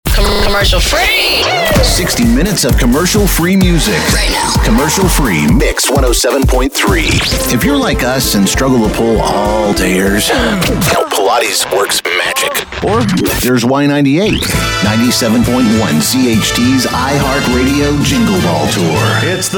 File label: Top 40 Hot AC Radio Imaging